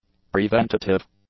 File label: "preventative", english; adjectives